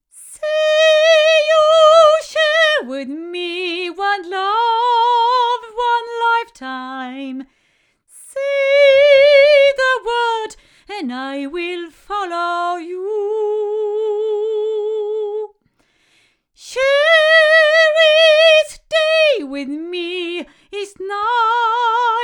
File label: Royer R-121 front female